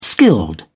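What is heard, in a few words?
"skilled", British English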